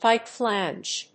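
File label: "pipe flange", English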